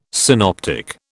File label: "synoptic", British